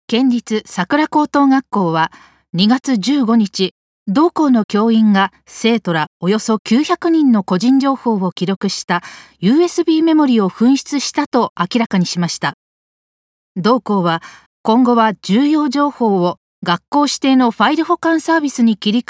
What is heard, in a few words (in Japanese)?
ニュース